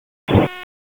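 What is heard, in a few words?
Police North District Dispatch, p25; Raleigh; Wake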